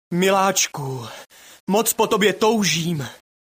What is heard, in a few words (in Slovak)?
sms hlásky cz